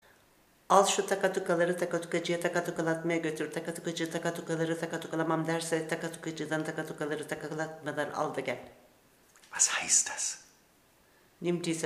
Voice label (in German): tuerkisch